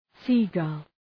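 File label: "seagull" English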